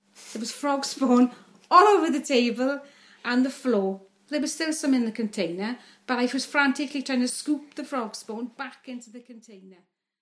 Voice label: SWANSEA CITY FEMALE